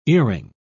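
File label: "earing", British English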